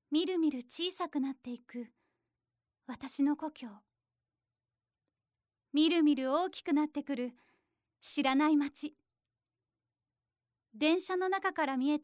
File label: narration